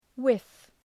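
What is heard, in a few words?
"whiff", English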